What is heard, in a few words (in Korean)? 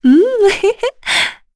Vox Happy, kr; voices; heroes; Yuria; kingsraid